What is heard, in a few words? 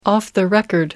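"off the record", British English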